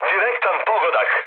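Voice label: Serbian bomb success mood low, speech; War Thunder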